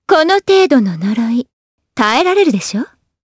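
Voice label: Vox Skill Japanese; Shamilla; kings raid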